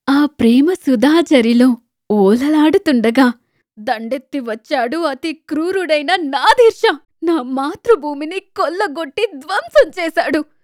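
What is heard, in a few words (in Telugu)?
Telugu, Narrative; voice demos; GoLocalise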